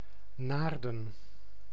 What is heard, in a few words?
Nl Naarden; ناردن